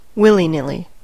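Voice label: "willy nilly", American English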